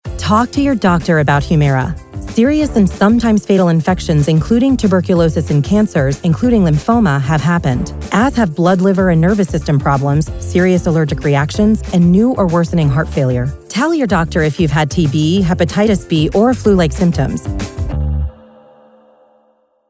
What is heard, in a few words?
Medical Narration; Humira